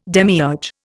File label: "demiurge", Indian